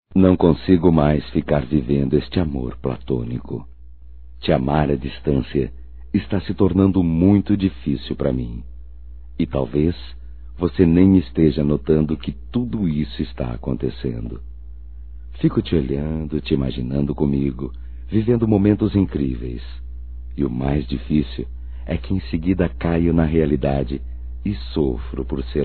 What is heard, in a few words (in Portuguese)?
VAMOS TENTAR, Românticas, masc; PERGUNTA